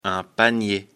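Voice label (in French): "panier", French; afrikalan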